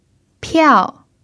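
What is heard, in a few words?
"piao" fourth tone, chinese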